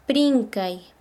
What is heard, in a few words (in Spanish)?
"correr", Quechua Chanka